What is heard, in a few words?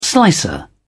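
"slicer", British English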